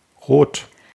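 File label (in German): "rot", German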